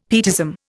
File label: "pietism", Indian; Dictionary